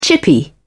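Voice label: "chippy", English, British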